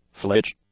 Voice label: "flitch", English; nouns